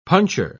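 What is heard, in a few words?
"puncher", British English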